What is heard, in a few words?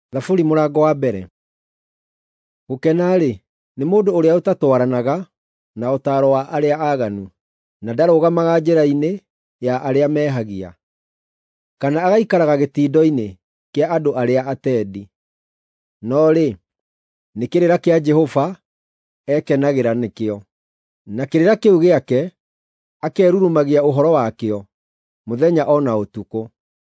psalms, gikuyu drama